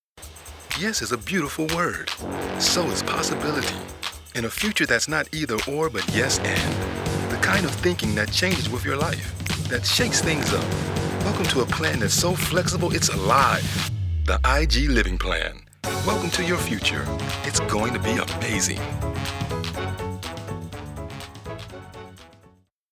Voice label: Hip Engaged

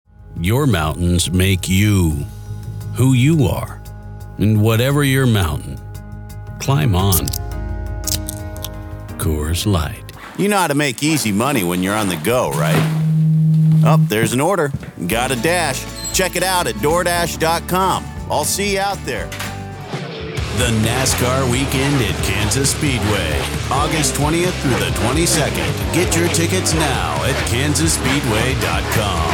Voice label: Commercial VO

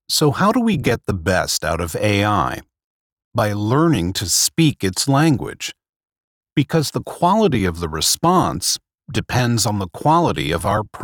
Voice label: Explainer Video

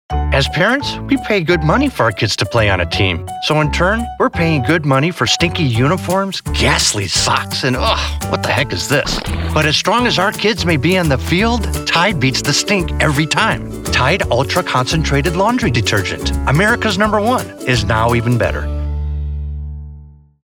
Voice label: Spot Commercial; Tide Stink

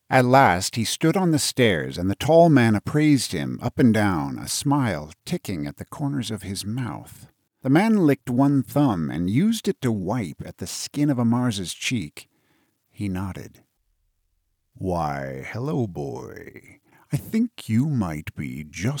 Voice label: fiction audiobook

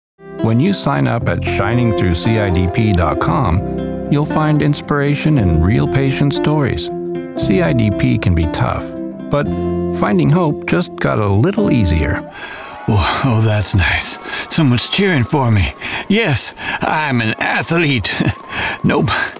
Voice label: Commercial

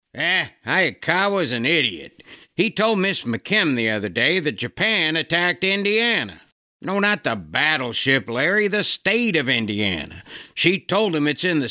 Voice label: Character

